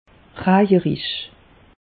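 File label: "rajerisch", Alsatian; meteo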